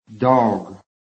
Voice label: "dog", phonetic